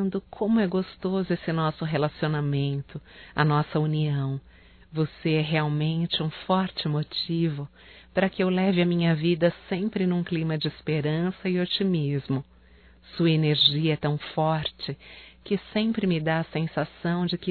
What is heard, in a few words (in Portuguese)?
BOA TARDE ROMâNTICO, fem; Bom dia, tarde e noite; Telemensagens 2017